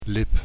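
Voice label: "lip", Dutch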